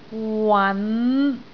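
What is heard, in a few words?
"wan5", Cantonese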